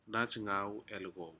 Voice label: "najgauelcau", Aneityum; fish